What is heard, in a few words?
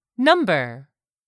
"number", English; 3000 words